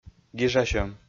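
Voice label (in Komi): "гижасьӧм", Komi, male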